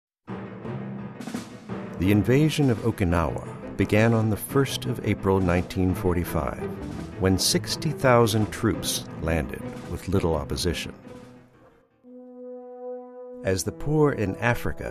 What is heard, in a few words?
narration